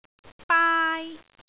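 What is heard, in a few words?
"baai1", Cantonese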